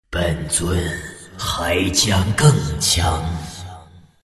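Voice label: levelup, chinese; dota2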